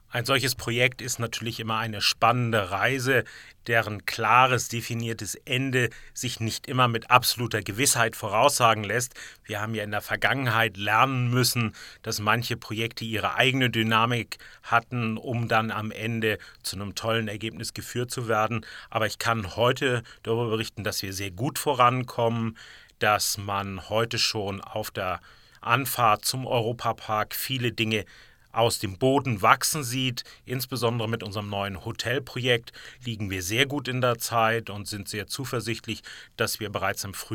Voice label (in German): Talk Wasserwelt Teasing